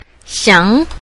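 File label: "xiang", Mandarin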